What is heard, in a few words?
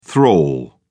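"thrall", British English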